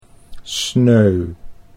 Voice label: "sneu", Dutch